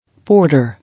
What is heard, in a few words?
"Porter", English